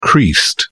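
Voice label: "creased", English, British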